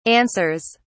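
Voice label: "answers", British English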